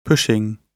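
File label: "pushing", British English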